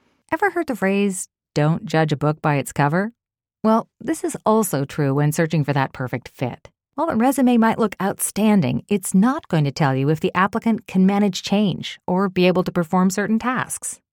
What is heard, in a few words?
Hiring Approachable Sincere